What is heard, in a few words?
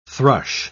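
"thrush", spoken word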